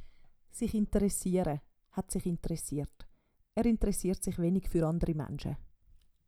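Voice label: "sich intressiere", Swiss German vocabulary; Wortschatz Kapitel 7; Kapitel 7 Zusatzwortschatz 5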